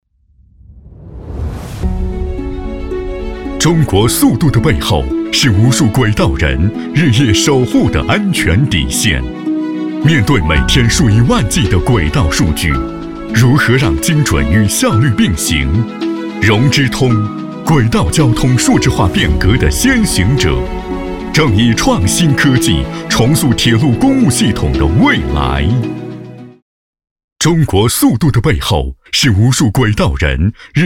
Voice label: 男 专题 轨道交通; 中国速度

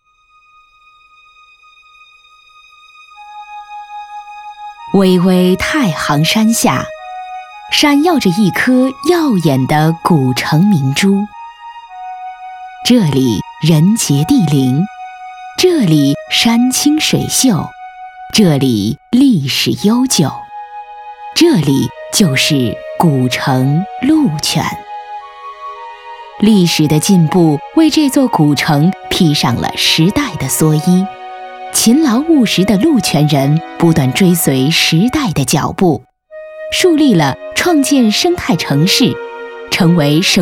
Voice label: 女 专题 公园; 海山公园

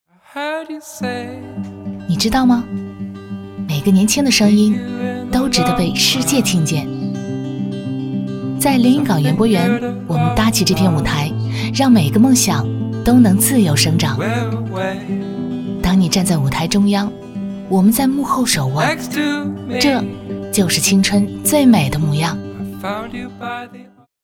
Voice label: 女 文旅 音乐节

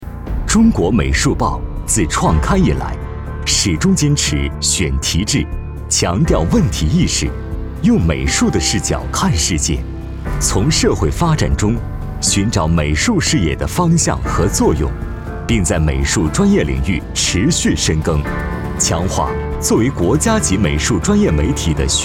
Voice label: 男 企业 朝气; 中国美术报

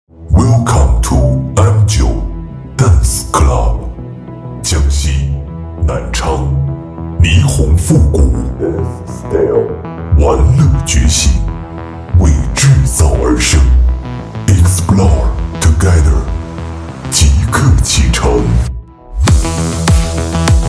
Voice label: 男, 效果电音

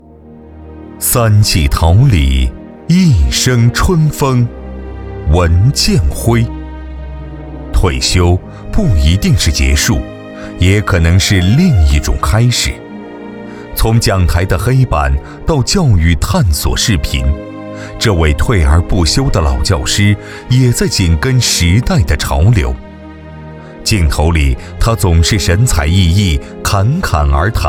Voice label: 男 人物 表彰

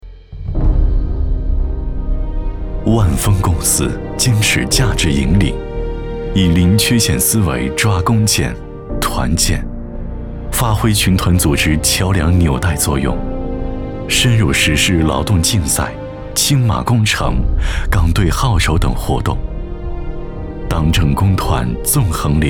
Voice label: 男 走心宣传; 万峰公司

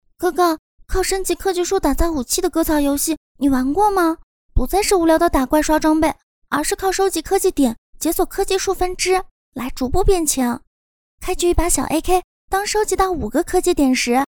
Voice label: 女 信息流 夹子

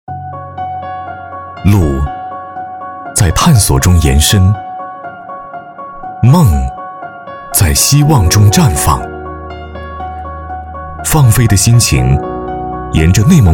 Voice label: 男 走心旁白

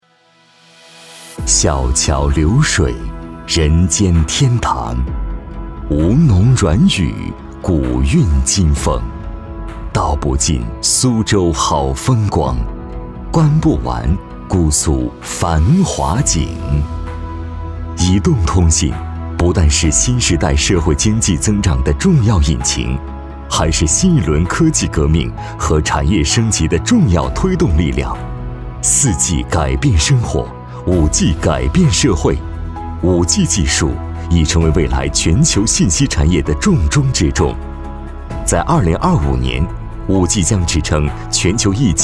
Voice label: 男 企业 前韵 后科技感; 苏州移动通信

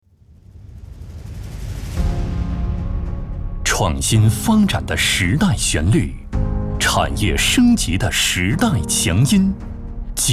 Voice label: 男 专题; 安徽绿能技术研究院

